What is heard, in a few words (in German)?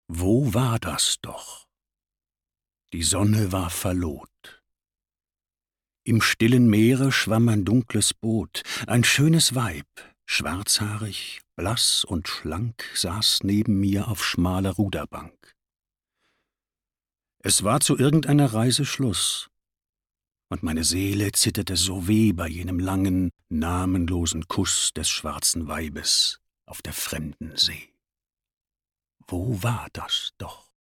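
erzählung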